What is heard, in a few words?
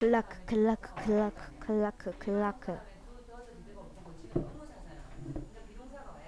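"clock", spoken word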